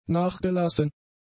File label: "nachgelassen", German; adjectives